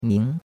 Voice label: "ming2", chinois; Cours-de-Chinois

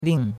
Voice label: "ling4", chinois; Cours-de-Chinois

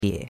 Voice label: "bie3", chinois; Cours-de-Chinois

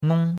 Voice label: "nong1", chinois; Cours de Chinois

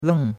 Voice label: "leng4", chinois; Cours-de-Chinois

